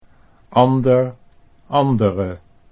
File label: "ander andere", Dutch